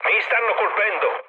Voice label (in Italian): aircraft damaged, mood low, speech it